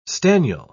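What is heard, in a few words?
"staniel", British English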